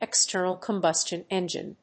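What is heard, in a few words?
"external combustion engine", English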